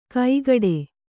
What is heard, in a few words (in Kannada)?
"ಕೈಗಡೆ", Kannada